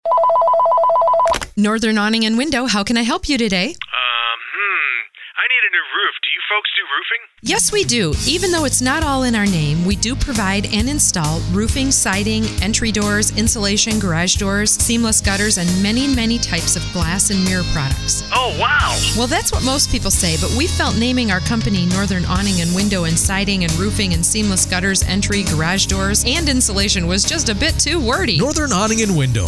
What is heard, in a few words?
COMMERCIAL; WFXD; NORTHERN AWNING AND WINDOW COMPANY